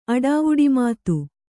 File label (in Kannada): "ಅಡಾವುಡಿಮಾತು", Kannada